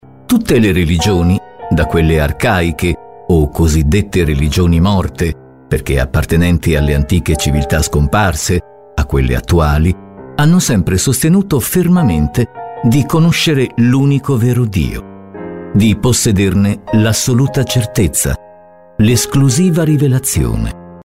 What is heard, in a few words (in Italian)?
documentari